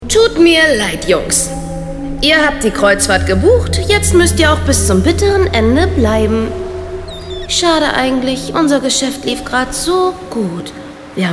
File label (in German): Hoerspiel